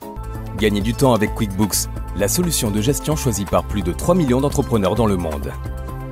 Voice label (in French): Spot Internet, Convaincant; QUICKBOOKS